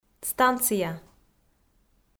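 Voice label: "станция", Komi-Zyrian, female; audio dict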